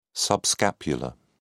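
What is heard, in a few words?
"subscapular", British English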